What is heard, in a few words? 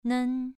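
"nen", tone 2, pronunciation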